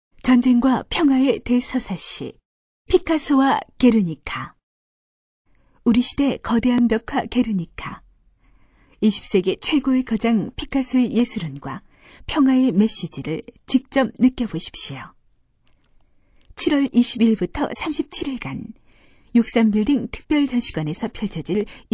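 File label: Korean female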